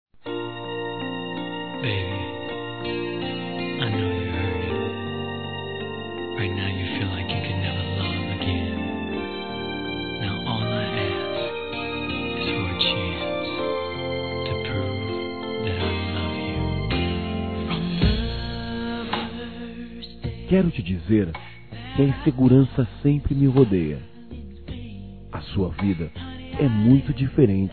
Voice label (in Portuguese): ESTOU MAGOADO C VOCÊ, masc; Broncas